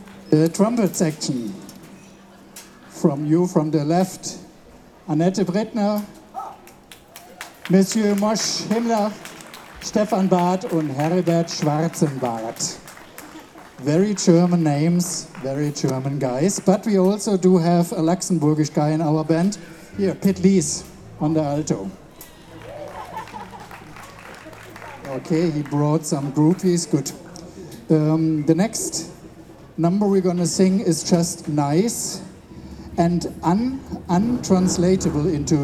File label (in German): Ansage